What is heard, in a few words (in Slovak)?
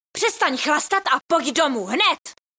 sms hlásky cz